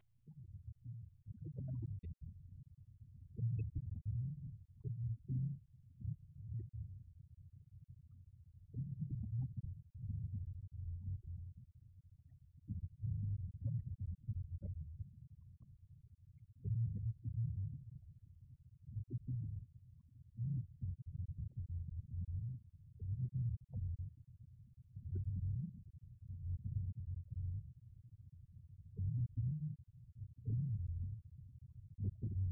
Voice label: radio banter; The Clifford Ball

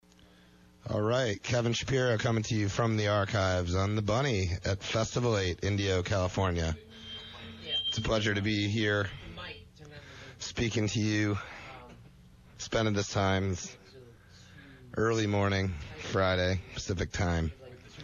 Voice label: radio banter; Festival 8

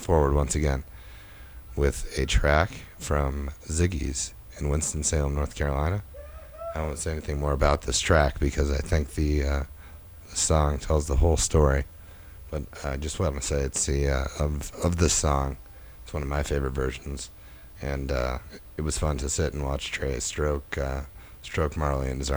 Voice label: radio banter; Big Cypress

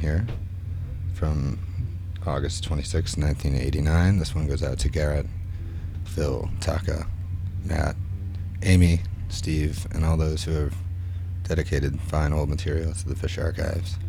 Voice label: radio banter; Lemonwheel

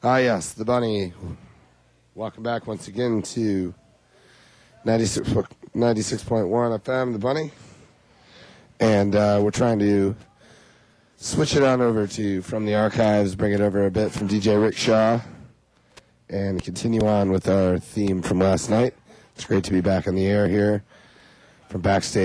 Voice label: radio banter

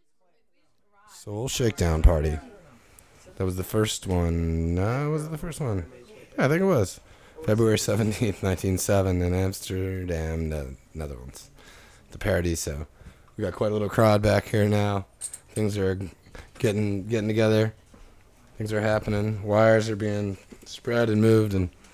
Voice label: radio banter; Big Cypress

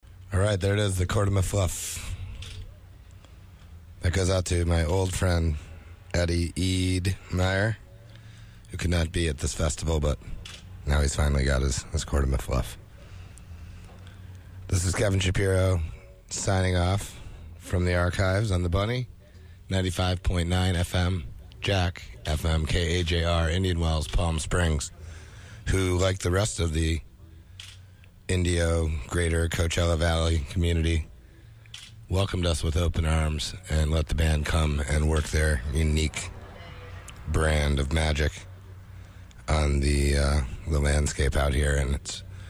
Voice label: radio banter; Festival 8

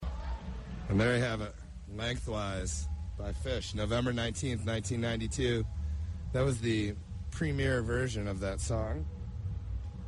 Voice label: radio banter; Bonnaroo

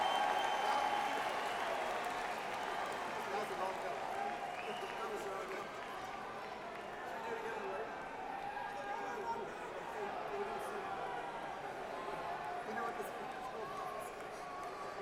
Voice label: Banter; Burgettstown, PA; Post Gazette Pavillion

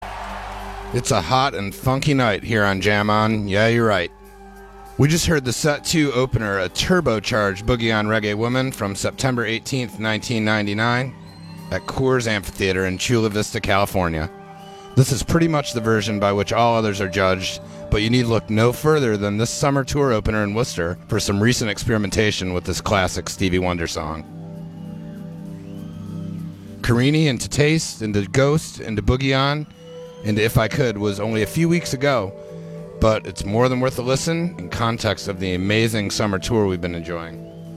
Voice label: radio banter; SPAC

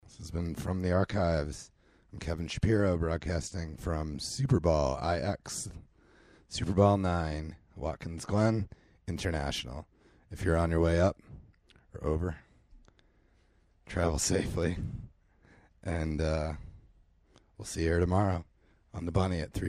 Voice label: radio banter; Super Ball IX

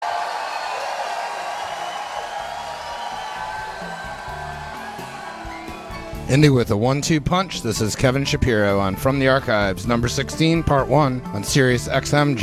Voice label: radio banter; SPAC

